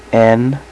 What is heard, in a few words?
"n" male, voice alphabet; Web Clip Empire 150,000